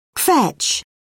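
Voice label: "kvetch", British English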